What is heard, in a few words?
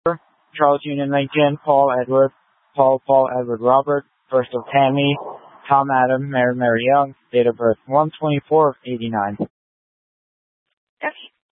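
Incident Tactical 3, Scanner audio recording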